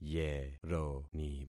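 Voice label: "yeroni", concatenated syllables; Affixing experiment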